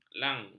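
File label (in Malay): "lang", Malay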